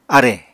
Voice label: "arè", apatani